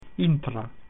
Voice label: "inside", Latin